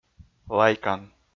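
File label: "лайкан", Komi-Zyrian, male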